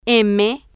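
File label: "m", spanish, female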